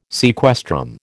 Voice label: "sequestrum", American